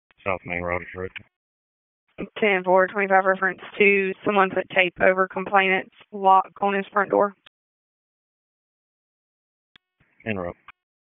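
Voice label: Sheriff's Office Dispatch 1, p25; WSO DISP 1; wake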